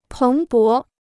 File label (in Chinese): "蓬勃", Chinese